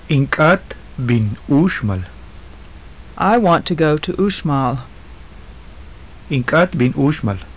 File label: phrases, maya